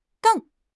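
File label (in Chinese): "瞪", Chinese; HSK6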